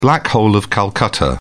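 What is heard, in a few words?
"black hole of calcutta", British English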